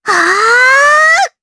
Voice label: Casting, jp; Lilia; kings raid

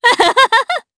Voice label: Vox Happy jp; voices heroes jp; DarkFrey; kingsraid

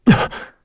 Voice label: Vox, kr; Esker; kingsraid

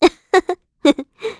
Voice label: Vox Happy kr; heroes; Hilda; kingsraid

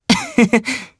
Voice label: Happy, jp; Theo; kings raid

